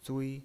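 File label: "zui", Mandarin Chinese, tone 1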